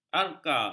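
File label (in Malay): "arka", Malay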